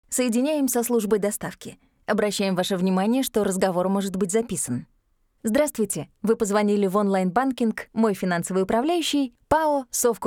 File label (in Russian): avtootvetchik, golos